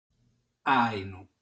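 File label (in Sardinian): "Àinu", Sardinian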